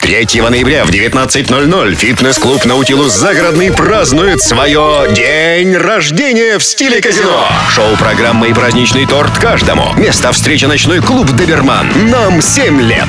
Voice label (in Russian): Диктор - Аудиоролик; 'Доберман'